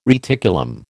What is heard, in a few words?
"reticulum", American